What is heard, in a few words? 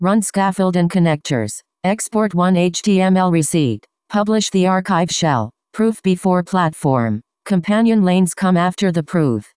promo vertical voiceover